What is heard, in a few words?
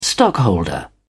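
"stockholder", English, British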